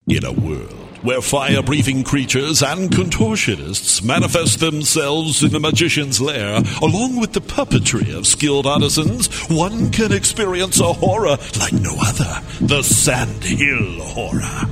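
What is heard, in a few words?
American English, General; GoLocalise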